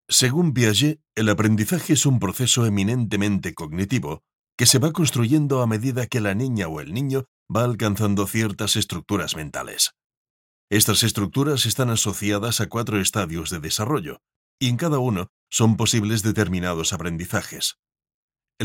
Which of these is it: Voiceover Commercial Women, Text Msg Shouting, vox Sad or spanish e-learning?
spanish e-learning